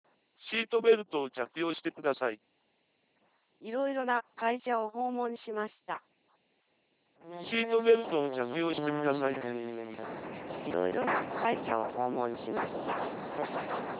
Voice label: lpc10e; 音声コーデック試聴; Federal Standard (LPC-10e); 2.4kbit/s; 8k; 男性音声 → 女性音声 → 男性音声(背景雑音付) → 女性音声(背景雑音付)